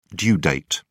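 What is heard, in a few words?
"due date", British English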